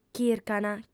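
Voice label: "chircant", Sardinian, female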